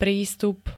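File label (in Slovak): "prístup", Slovak; možnosť, oprávnenie vstúpiť, vniknúť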